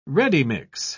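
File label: "ready-mix", British English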